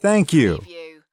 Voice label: US male thank you; lynne publishing